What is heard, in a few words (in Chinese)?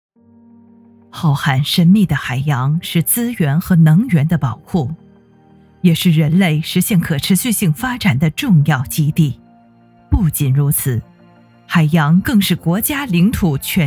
厚重女中音; 我的国风格